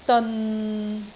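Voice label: "deon6", Cantonese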